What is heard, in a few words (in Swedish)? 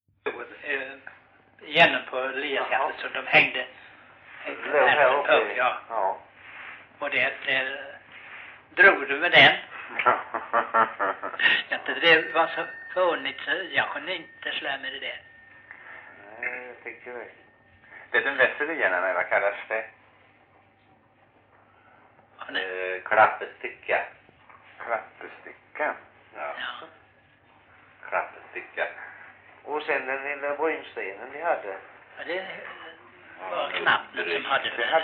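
Rolfstorp Halland, dialekter; Folkminnen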